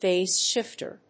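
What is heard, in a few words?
"phase shifter", English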